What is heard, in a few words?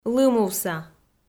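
"лымувса" Komi, female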